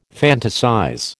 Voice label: "fantasize", American